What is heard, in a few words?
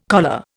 "choler", Indian